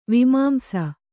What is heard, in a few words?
"ವಿಮಾಂಸ", Kannada